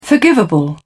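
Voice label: "forgivable", British English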